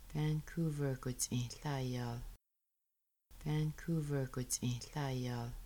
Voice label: he came from Vancouver, Kaska

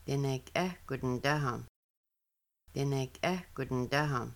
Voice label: Do you speak Kaska, Kaska; rummaking

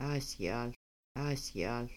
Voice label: I am goin, Kaska; I am going

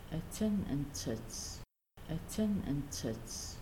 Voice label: Eat meat, Kaska; Making Bannock

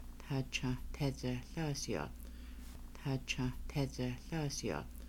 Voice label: I came last night, Kaska; Meeting in Whitehorse

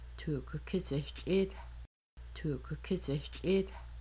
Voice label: Making Bannock We 3 are pouring water, Kaska

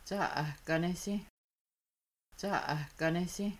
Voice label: You might dry the dishes, Kaska; Washing Dishes

